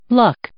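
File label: "luck", English, female; noun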